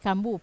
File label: "kambu", Wamesa; water